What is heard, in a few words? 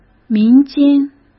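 "民间", Mandarin Chinese; chinese-flashcards; hsk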